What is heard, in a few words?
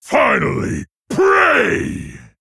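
Male Centaur Attack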